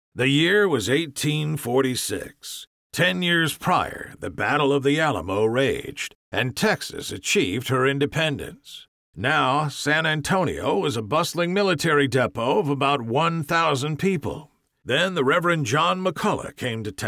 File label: narration gruff historical